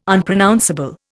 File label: "Unpronounceable", Indian